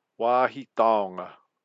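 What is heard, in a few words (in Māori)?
"wahi taonga", Māori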